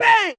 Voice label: "bang", Voice